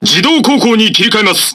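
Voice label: Japanese Speech Autopilot Enabled; World Of Warships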